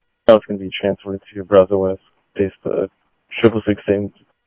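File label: Sheriff West County, scanner audio trunk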